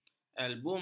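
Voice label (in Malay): "albumen", Malay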